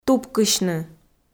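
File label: "тупкысьны", Komi, female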